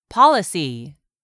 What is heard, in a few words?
"policy", English; 3000 words